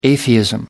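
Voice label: "atheism", English, uk British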